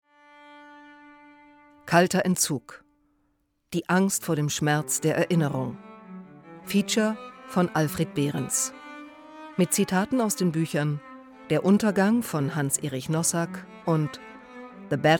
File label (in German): RADIO ABSAGETEXT